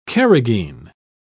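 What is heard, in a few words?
"carrageen", English, British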